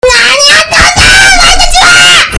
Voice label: very angry loud warning; miko